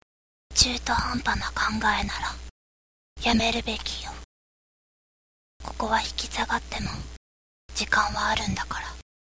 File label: girl